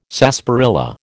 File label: "sarsaparilla", American